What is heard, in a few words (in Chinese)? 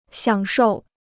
"享受", Chinese